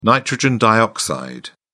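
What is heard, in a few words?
"nitrogen dioxide", British English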